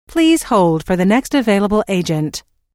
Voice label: Please Hold For The Next Available Agent, Telephone prompt; Pre-recorded telephone on-hold message; Recorded Voice Sound Effects